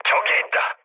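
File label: Korean enemy detected mood low, speech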